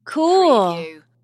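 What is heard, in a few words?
Woman Says Cool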